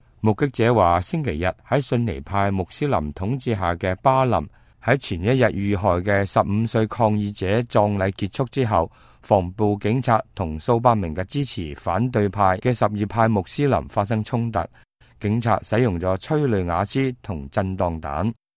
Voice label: cantonese, news